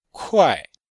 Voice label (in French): "kuai4", chinois; Cours-de-Chinois